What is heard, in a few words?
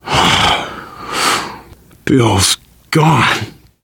grief, survivor voice, biker; Left 4 Dead 2; left4dead2 dlc2